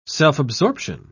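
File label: "self-absorption", British English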